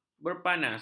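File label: "berpanas", Malay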